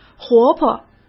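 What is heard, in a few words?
"活泼", Mandarin Chinese; hsk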